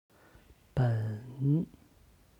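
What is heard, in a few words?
"ben", Chinese; 本; HSK Level One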